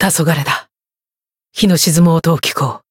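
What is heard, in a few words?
Voice limit break, Japanese; clarte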